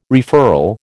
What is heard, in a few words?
"referral", American